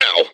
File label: stormtrooper pain; lego star wars; tfa